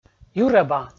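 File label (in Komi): "юрӧба" Komi, male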